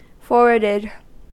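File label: "forwarded" US English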